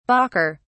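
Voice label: "balker", British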